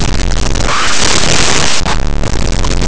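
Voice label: "saber", Spanish; laboratori fonetica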